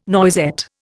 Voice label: "noisette", Indian